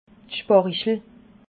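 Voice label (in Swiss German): "sparichel", Alsatian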